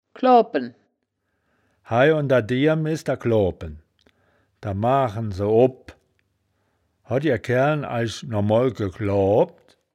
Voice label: "kloabpen", wittlich

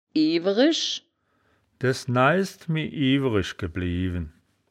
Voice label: "iewerisch", wittlich; das wittlicher woerterbuch; woerterbuch vertonung

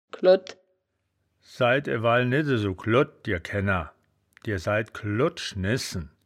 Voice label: "glodd", wittlich; das wittlicher woerterbuch; woerterbuch vertonung

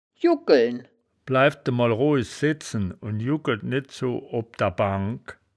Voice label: "juggkeln", wittlich

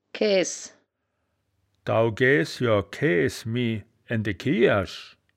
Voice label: "kaeaes", wittlich; das wittlicher woerterbuch; woerterbuch vertonung

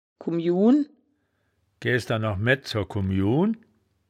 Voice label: "kummiun", wittlich

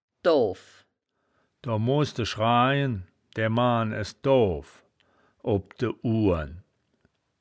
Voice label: "doow", woerterbuch, Wittlich

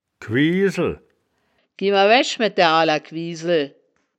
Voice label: "quiesel", Wittlicher; das wittlicher woerterbuch; woerterbuch vertonung

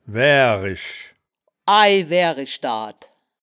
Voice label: "waerisch", wittlich

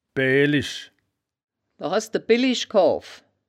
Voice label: "bellisch", woerterbuch, Wittlich

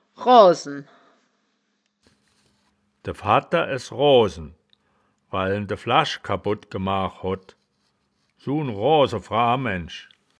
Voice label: "roasen", wittlicher woerterbuch; das wittlicher woerterbuch; woerterbuch vertonung

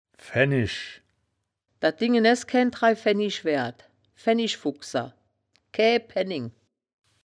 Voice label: "faennisch", woerterbuch, Wittlich

